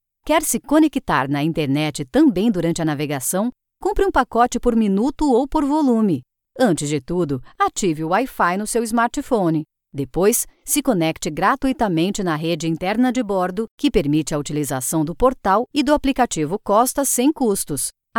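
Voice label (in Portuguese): Brazilian Portuguese, eLearning; voice demos; GoLocalise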